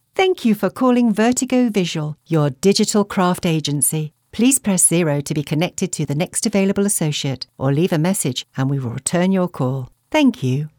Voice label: IVR